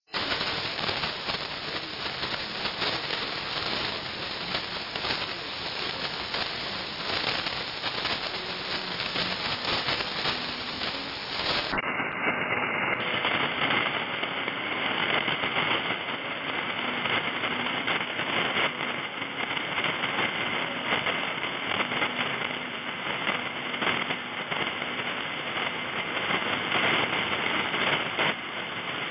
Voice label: 9.92 MHz Thai; R.Thailand